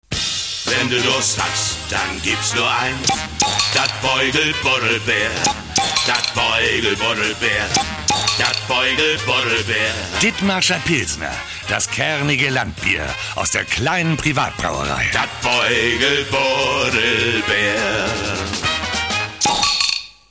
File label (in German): werbung; ditmarscher